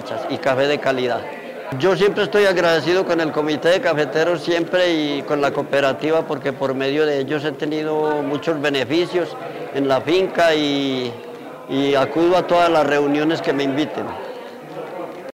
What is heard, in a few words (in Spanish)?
voz caficultor; caficultor de Calarca